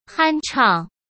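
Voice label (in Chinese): "酣畅", Mandarin Chinese; chinese flashcards; hsk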